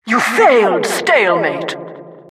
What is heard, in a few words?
announcer failed stalemate, vo